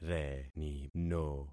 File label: "renino", concat syllables; Affixing experiment